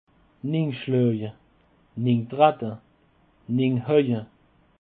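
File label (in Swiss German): "ninschlawe", Alsatian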